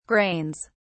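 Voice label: "grains", English, British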